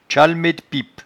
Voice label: "tchalmedpipe", patois jura

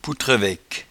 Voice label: "poutreveque", patois jura

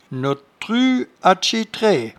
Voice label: "notetrueat", patois jura

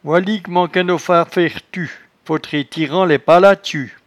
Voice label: "voilicment", patois jura

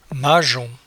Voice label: "majon", patois jura

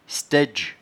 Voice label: "staidge", patois jura

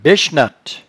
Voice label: "baichenatte", patois jura

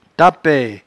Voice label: "tapee", patois jura

